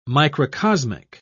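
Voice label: "microcosmic", British English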